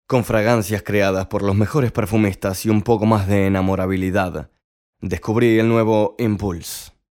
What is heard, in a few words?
Bajada, Espanol